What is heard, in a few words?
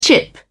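"chip in", English, British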